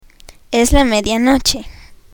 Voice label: "medianoche", Spanish; time

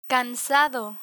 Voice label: "cansado", Spanish; spanish verbs; learn spanish

